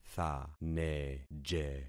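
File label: "thaneje", concatenated syllables; Affixing experiment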